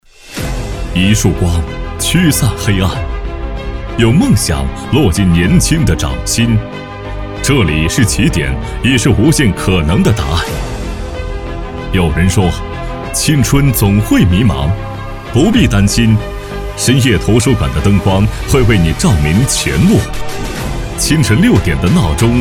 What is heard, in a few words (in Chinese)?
男 宣传片; 一束光